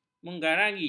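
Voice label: "menggari", Malay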